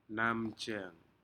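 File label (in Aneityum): "namjeg", Aneityum word, shellfish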